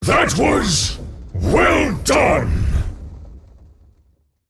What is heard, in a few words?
Male Elemental Well Played